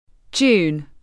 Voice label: "June", UK; dʒuːn; تلفظ بریتانیایی ژوئن